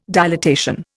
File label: "dilatation", Indian